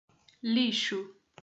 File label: "lixo", portuguese